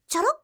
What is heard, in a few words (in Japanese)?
"choro", voice